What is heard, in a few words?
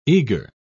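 "eagre", British English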